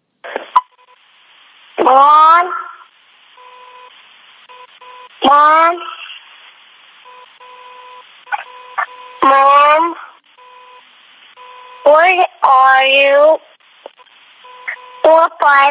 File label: Voicemail; Falling Bacon; A little short about a short person